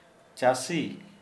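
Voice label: farmer, Santali, male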